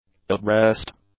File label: "abreast", english; adjectives